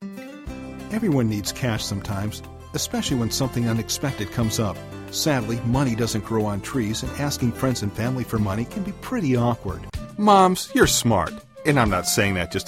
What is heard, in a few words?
commercial